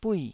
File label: "buih4", taiwanese